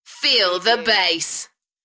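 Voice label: UK female, DJ vocals; Feel the bass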